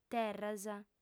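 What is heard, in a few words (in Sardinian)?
"terras", female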